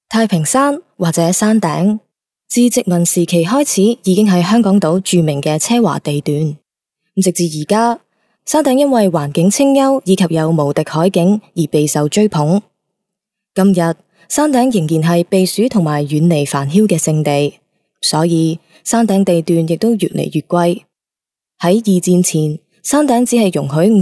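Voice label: Cantonese female corporate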